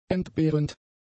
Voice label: "entbehrend", German; adjectives

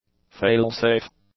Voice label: "fail-safe", english; adjectives

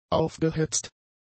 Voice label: "aufgehetzt", German; adjectives

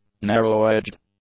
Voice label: "narrow-edged", English; adjectives

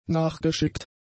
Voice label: "nachgeschickt", German; adjectives

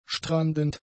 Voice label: "strandend", German; adjectives

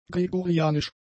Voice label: "gregorianisch", German; adjectives

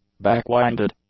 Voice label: "backwinded", English; adjectives

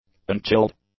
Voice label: "unchilled", English; adjectives

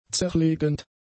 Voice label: "zerlegend", German; adjectives

